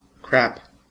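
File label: "crap", American English; pronunciations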